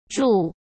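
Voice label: "注", Mandarin Chinese; chinese flashcards; hsk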